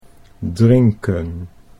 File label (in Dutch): "drinken", Dutch